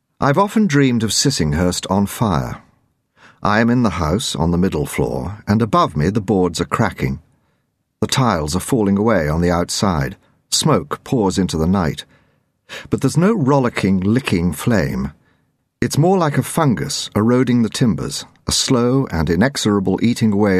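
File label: drama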